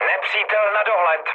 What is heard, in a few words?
enemy detected, speech cze, mood low; War Thunder